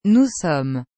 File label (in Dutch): "nous sommes", frans; etre